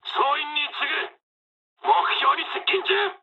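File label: near waypoint mood med, speech japanese; War Thunder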